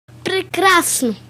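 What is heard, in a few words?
awesome, Finnish; gcompris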